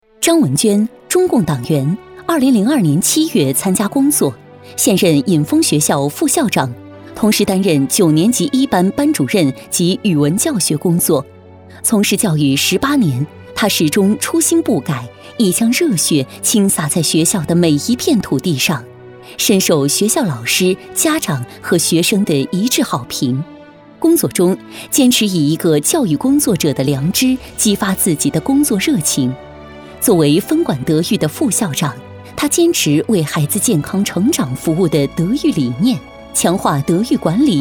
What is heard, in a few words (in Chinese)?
女 专题片 人物介绍 干脆利索